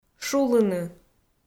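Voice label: "шулыны", Komi, female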